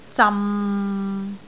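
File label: "zam6", Cantonese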